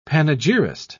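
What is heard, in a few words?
"panegyrist", British English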